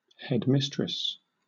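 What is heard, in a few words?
"headmistress", English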